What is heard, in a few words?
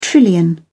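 "trillion", British English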